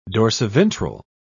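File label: "dorsiventral", British English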